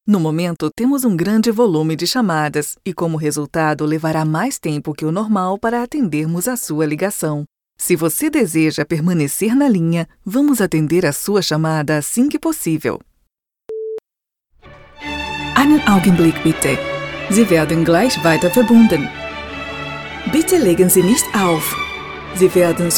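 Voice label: Brazilian Portuguese, female, ivr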